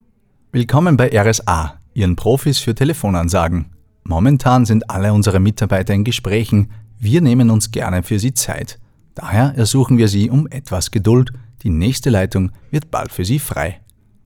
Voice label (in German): Telefonansage